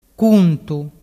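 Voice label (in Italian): "cuntu", dialect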